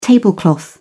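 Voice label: "tablecloth", British English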